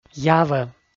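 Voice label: "явӧ", Komi, male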